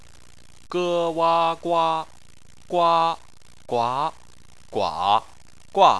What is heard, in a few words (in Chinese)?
"gua", Chinese